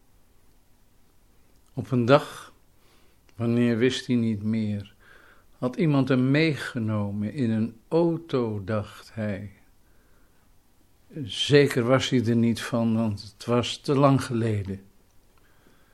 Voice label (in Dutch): Mannelijke stem voorbeeld